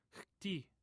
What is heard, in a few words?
"xti", Omaha